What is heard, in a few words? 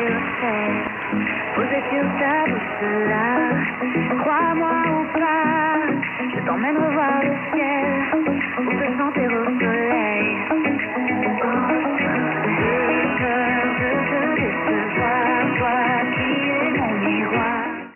Radio Wave International 6070 kHz francese; RWX; Perseus